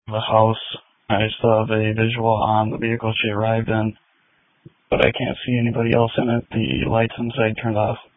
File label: Police Tactical Encrypted